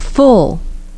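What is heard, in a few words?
"full", English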